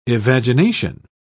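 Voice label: "evagination", British English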